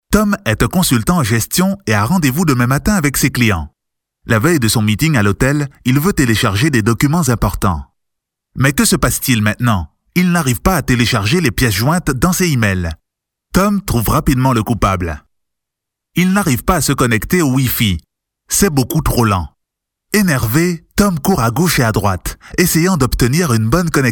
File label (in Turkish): Fransızca